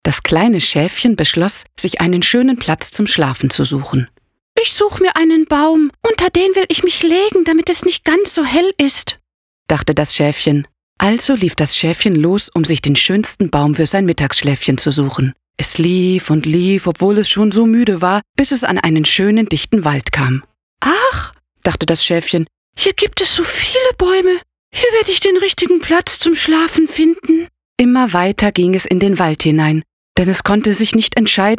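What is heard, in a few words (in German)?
erzählung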